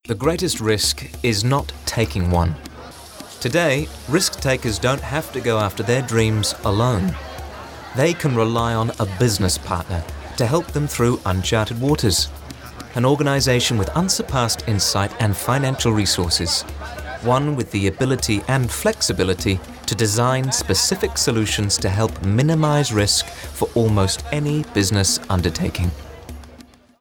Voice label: financial documentary, en-GB